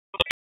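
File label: Police Spec Ops Tac, radio; Raleigh; Tac 13; p25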